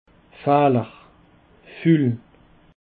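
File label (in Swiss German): "fehler", Alsatian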